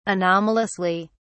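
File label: "anomalously", British English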